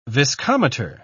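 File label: "viscometer", English, British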